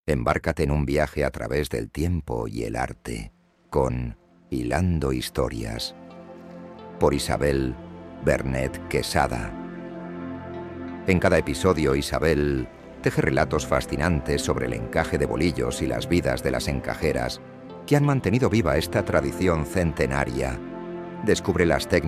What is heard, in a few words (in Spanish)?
voz en off